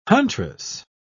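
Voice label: "huntress", English, British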